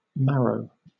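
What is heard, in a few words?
"marrow", British English; pronunciations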